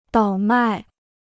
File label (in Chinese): "倒卖", Chinese